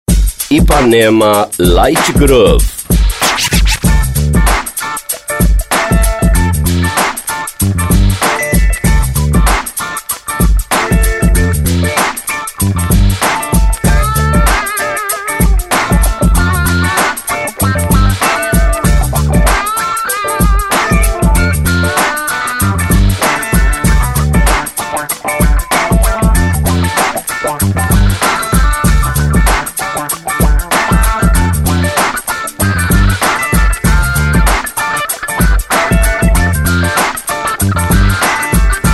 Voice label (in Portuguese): spot; vinhetas 2005; IpanemaFM